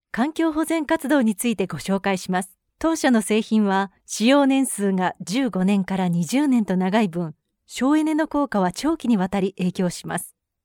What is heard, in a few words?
female japanese voice over narrative